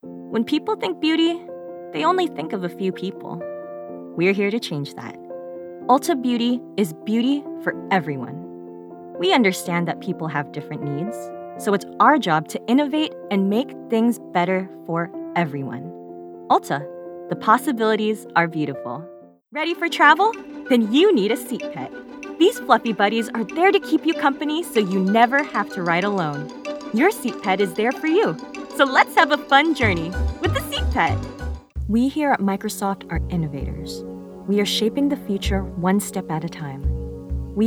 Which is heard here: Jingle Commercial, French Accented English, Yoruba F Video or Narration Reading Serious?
Jingle Commercial